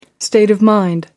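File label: "state of mind", British English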